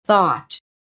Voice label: "thought", phonetic